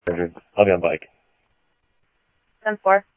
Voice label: Security, scanner audio